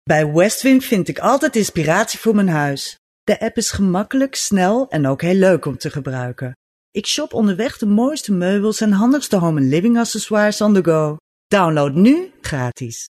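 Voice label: Dutch, female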